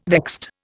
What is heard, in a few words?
"vexed", Indian